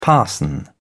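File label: "parson", British English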